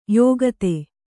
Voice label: "ಯುಕುತಿ", Kannada

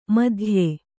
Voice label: "ಮಧ್ಯ", Kannada

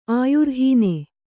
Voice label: "ಆಯುರ್ಹೀನೆ", Kannada

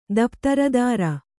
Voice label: "ದಪ್ತರದಾರ", Kannada

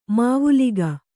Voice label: "ಮಾವುಲಿಗ", Kannada

